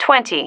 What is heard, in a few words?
"twenty", female